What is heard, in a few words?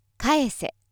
female